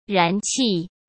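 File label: "燃气", Mandarin Chinese; chinese flashcards; hsk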